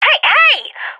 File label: taking fire friendly, vo agents; fbihrt epic